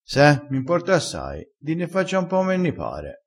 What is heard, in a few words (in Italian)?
"m importa", crespinese